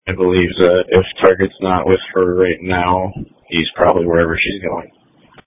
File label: Police Tactical Encrypted